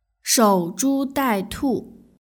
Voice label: "shouzhudaitu" Chinese